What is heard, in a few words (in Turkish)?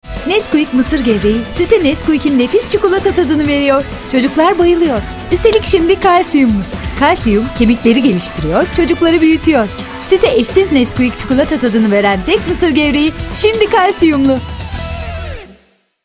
dinamik